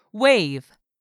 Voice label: "wave", English word; 3000 words

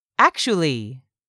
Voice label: "actually", English; 3000 words

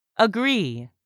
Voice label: "agree", English; 3000 words

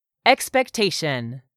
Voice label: "EXPECTATION", english; 3000 words

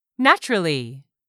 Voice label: "naturally", English; 3000 words

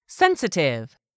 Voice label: "sensitive", English; 3000 words

